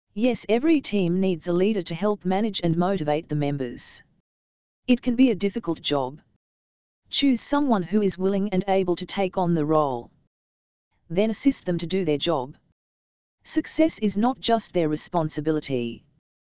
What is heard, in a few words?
dialog